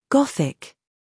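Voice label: "humor", British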